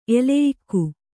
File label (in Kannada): "ಎಲೆಯಿಕ್ಕು", Kannada